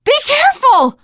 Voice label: team kill accident, teengirl; player; survivor; voice